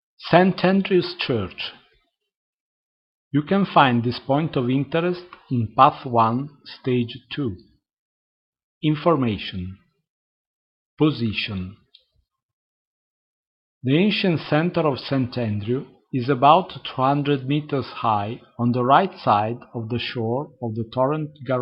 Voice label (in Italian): inglese